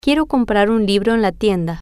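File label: Quiero Comprar Libro Tienda, Spanish, female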